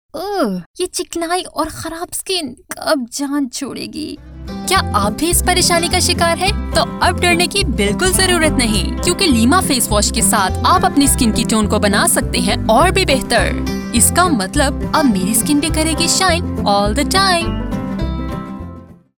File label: urdu corporate videos